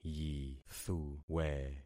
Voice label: "yithuwe", concat syllables; Affixing experiment